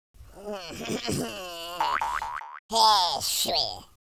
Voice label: taunt, French Lover; speech